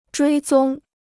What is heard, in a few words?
"追踪", Chinese